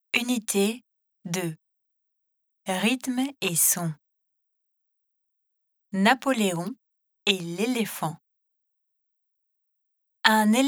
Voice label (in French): rythmes et sons les sons du e e e